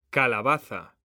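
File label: "calabaza", Spanish, male; mekanta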